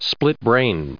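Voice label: "split-brain", US English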